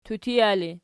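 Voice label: "tutija" ergative, Archi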